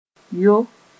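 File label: "yo", Mossi; to commit adultery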